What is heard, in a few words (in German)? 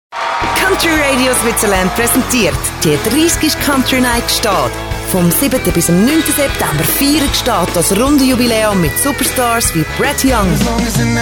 Werbung; Gstaad